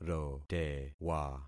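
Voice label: "rotewa", concatenated syllables; Affixing experiment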